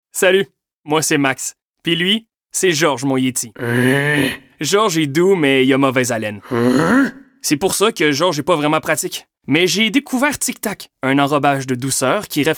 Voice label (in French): annonceur narration personnage humour fr qc naturel; tic tac; amical avec sourire